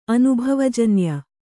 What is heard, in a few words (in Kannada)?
"ಅನುಭವಜನ್ಯ", kannada